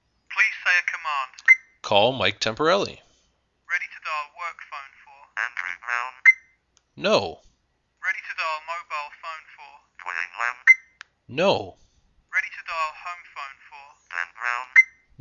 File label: Voice Assist Demo; Samsung i320; Temporale